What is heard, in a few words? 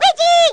baby "weegee"; baby mario